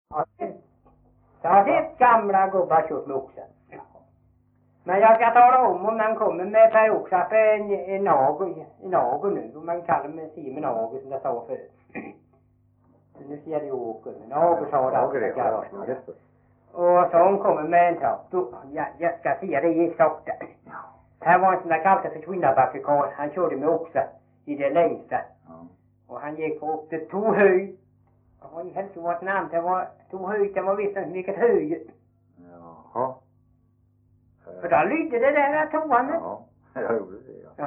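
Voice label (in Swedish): Veddige Halland, Svenska sagor dialekter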